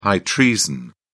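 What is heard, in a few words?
"high treason", English, British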